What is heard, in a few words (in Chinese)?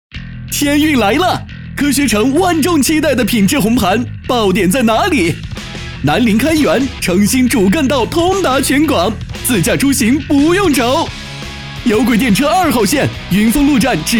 男 电台广告